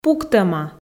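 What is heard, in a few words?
"пуктӧма" Komi, female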